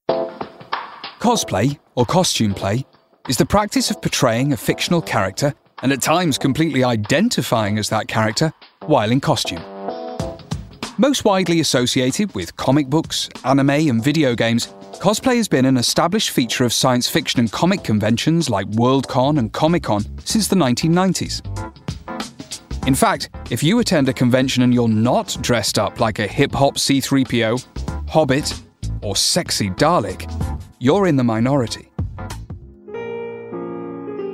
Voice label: English UK